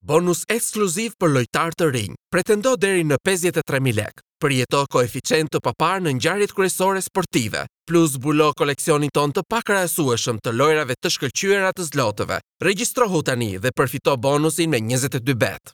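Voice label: Albanian male Commercial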